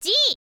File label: "g", girl; voice